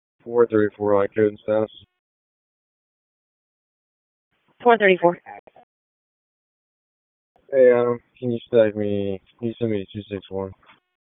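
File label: Police Southeast District Dispatch; Raleigh; wake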